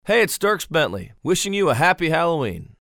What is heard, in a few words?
liner halloween